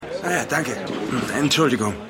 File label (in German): Mann Im Restaurant, Stimmproben